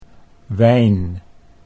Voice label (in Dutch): "wijn", Dutch